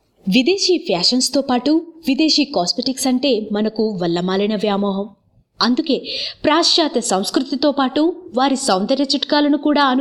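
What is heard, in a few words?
narration telugu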